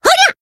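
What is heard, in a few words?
Voice attack Japanese; myungwha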